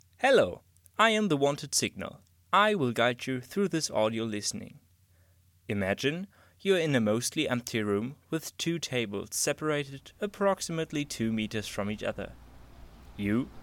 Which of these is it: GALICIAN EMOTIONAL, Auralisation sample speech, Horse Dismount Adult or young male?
Auralisation sample speech